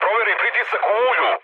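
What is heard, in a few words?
Serbian damaged oil mood med, speech; War Thunder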